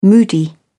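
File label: "moody", British English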